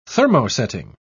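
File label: "thermosetting", British English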